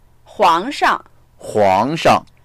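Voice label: "huang2shang", Mandarin Chinese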